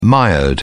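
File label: "mired", British English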